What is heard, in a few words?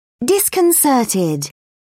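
"disconcerted", English, British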